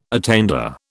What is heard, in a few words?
"attainder", British